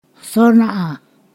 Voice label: "today", Ponosakan

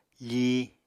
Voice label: "fíi", Apatani

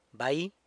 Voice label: "bai", San Lucas Quiavini; rebozo

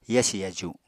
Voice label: "yasi yaju", Apatani; Household Items

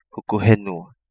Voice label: Kapingamarangi; Hukuhenua

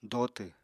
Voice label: "dotw", Apatani

